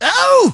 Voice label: tnt guy die